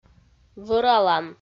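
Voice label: "вӧралан", Komi, female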